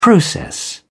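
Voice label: "process", English, British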